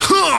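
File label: "huh", vocal sample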